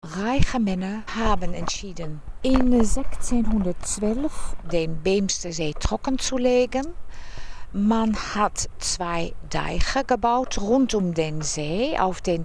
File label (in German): O-Ton Frauenstimme; schiffsreise von bremerhaven nach amsterdam